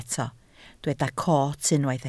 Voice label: "coot", Welsh